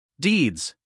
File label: "deeds", us male